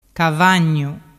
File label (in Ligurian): "cavagnu", dialettu